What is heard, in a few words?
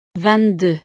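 "vingt deux", French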